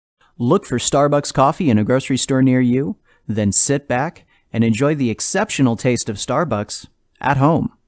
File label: Radio Commercial; Starbucks Coffee